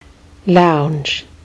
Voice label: "lounge", kiwi english